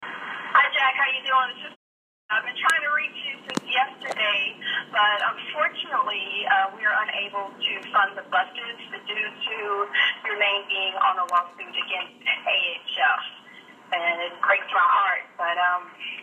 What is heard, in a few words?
Voice Mail Name